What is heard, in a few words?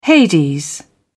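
"hades", British English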